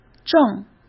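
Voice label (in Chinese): "挣", Mandarin Chinese; hsk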